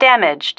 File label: "damaged", female